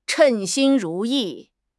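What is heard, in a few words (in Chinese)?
"称心如意", Chinese; HSK6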